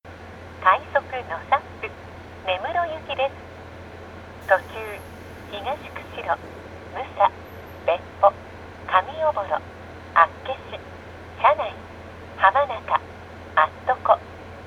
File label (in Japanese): stop, 車両 キハ54, 停車駅案内; 花咲線 hanasaki line; 3629D 快速 ノサップ 根室行; 釧路停車中; 停車駅案内有り